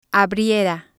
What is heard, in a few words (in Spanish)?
"abriera", spanish